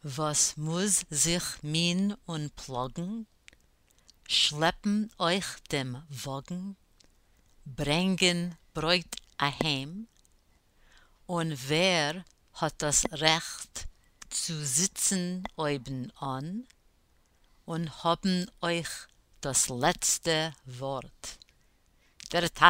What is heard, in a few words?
bass pronunciation, chorus; all the way through